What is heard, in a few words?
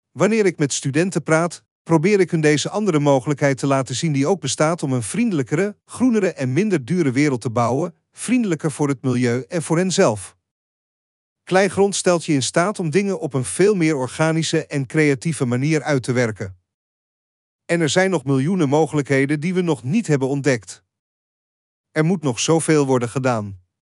DUTCH; Casa Terracota